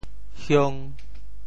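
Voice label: "hiong5", Teochew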